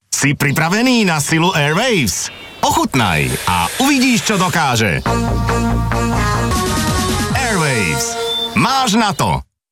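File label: Slovak, commercial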